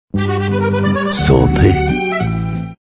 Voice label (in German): prost auf franzoesisch